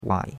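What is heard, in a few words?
"wai3", Mandarin Chinese; Cours-de-Chinois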